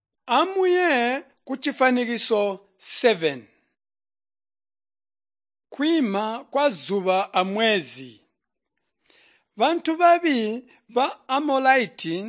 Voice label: tonga plateau, drama; chitonga bsz nt drama